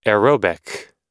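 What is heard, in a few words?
"aerobic", US English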